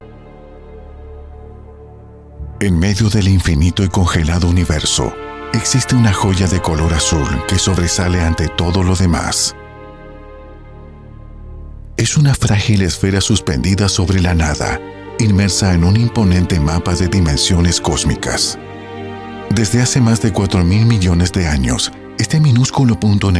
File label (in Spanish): Locutor Documental; Planeta Azul